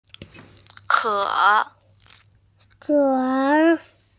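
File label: "ke3", Mandarin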